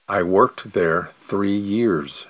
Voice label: response slow

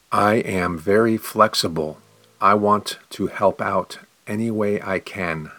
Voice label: response slow

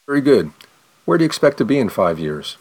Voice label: question fast

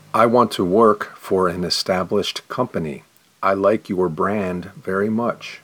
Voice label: response slow

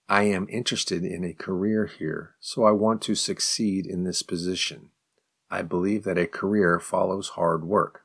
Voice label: advanced response slow

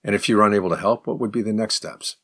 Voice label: advanced question fast

